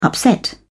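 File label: "upset", British English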